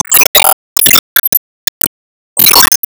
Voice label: Emo Warcry